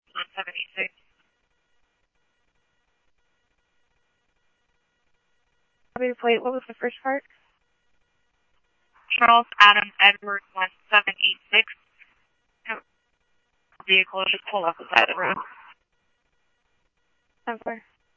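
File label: Police radio; ScottsdalePolice; H-Scottsdale; SCTSDLE PD - PD2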